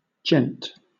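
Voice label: "gent", British English; pronunciations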